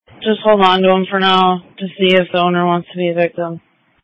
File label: Police Dispatch